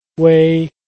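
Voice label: "wei1", Mandarin Chinese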